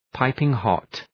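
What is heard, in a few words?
"piping hot", English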